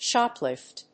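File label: "shoplift", English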